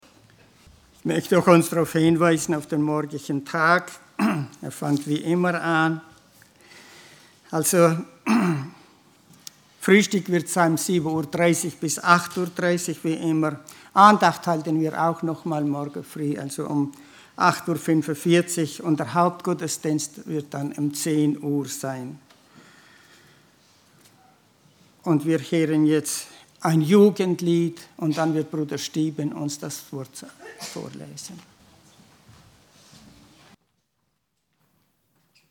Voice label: Ansprache; Die biblische Gemeinde Gottes

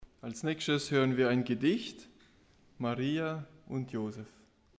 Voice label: Ansage Gedicht

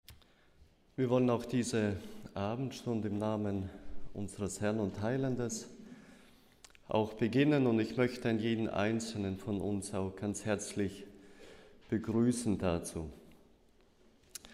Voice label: Begruessung und Bekanntmachung

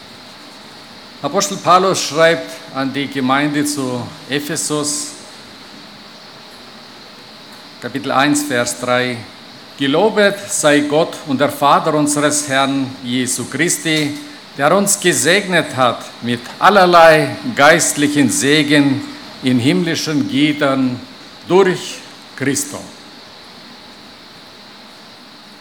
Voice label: Ansage

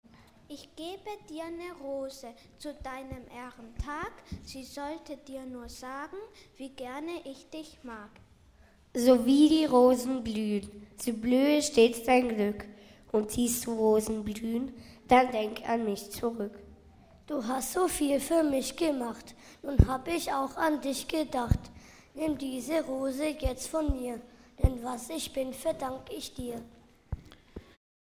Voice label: Gedichte von den Kindern

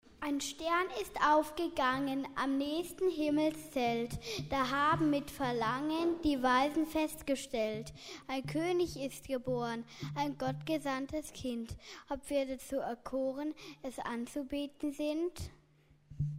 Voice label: Gedicht, Gemeinde; Gedicht Ein Stern ist aufgegangen

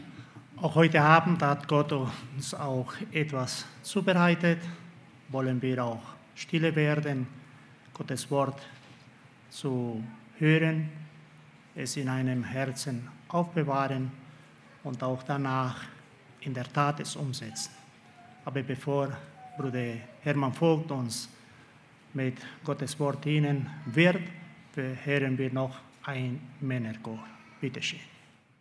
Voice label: Ansage; Nicht von dieser Welt

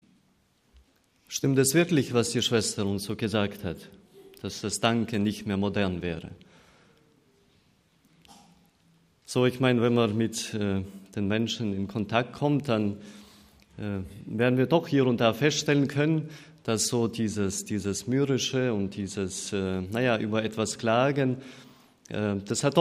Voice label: Ansage

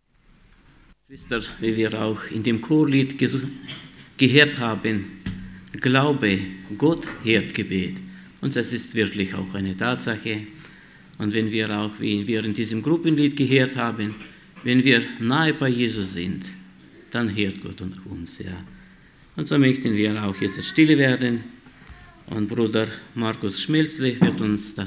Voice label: Ansage; Lagerversammlung 2015; Krankenheilung

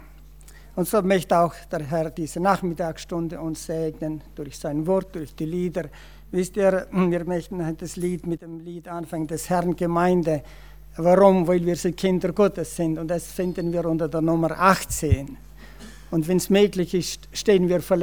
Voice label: Ansage; Lagerversammlung 2017; Drei Häuser

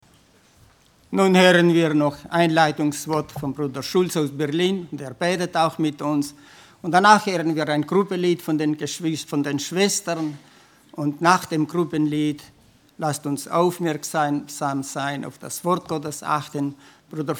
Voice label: Ansprache; Heiligung im praktischem Leben

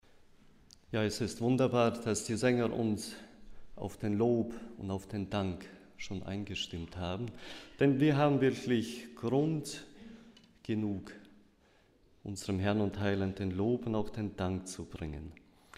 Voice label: Ankuendigung Gedicht

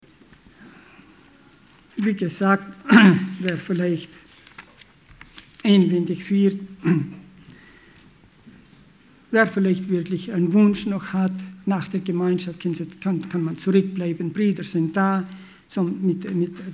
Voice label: Ansage; Jesus ruft dich

